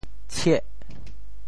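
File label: "tshieh8", Teochew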